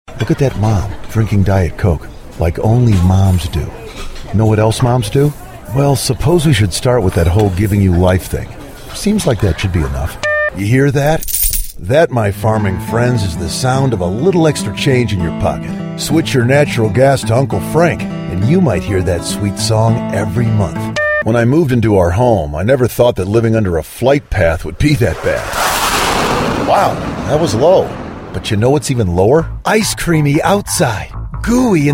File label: english american voice over male commercial; BiberSA Prodüksiyon